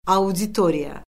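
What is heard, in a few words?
"auditoriya", Azerbaijani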